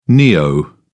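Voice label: "neo", British English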